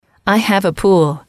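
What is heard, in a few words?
i have a pool, English, female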